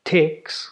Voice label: "takes", ulster; jetpackLearningPlatform; story-arranger

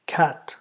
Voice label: "cat", ulster; jetpackLearningPlatform; story-arranger

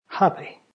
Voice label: "happy", ulster; jetpackLearningPlatform; story-arranger

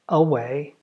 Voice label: "away", ulster; jetpackLearningPlatform; story-arranger

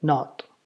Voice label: "not", ulster; jetpackLearningPlatform; story-arranger

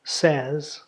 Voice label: "says", ulster; jetpackLearningPlatform; story-arranger

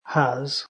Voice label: "has", ulster; jetpackLearningPlatform; story-arranger

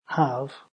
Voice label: "have", ulster; jetpackLearningPlatform; story-arranger

